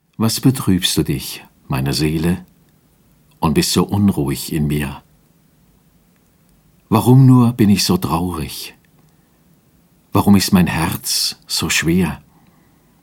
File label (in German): Lesung